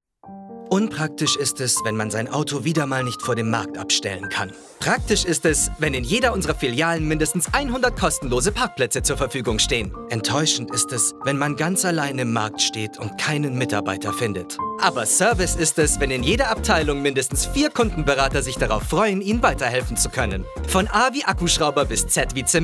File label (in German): DE Werbung Baumarkt